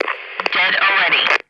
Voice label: American Female Hit Dead